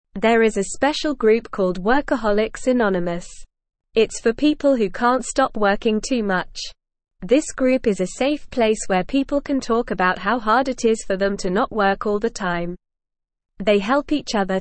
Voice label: English Beginner NORMAL Reading; English Newsroom; Workaholics Anonymous Helping People Who Work Too Much